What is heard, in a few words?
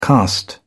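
"caste", British English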